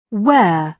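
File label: "wear", British English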